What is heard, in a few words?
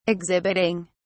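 "exhibiting", British English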